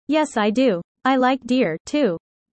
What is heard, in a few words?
user fast; Animal friends